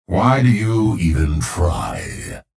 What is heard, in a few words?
chatter neutral; clutch